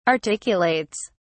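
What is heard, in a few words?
"articulates", English, British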